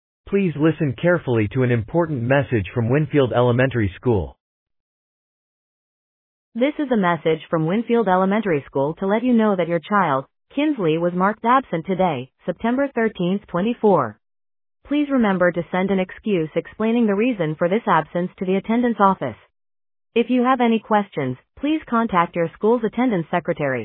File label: Voicemail